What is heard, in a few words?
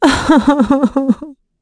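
Vox Sad, English; kingsraid; voices heroes en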